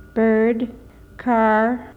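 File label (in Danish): amerikansk engelsk bird car; [bɚd kʰɑ˞]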